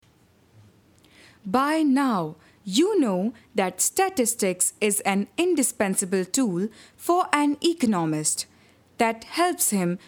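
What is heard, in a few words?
Hindi, Accented English; GoLocalise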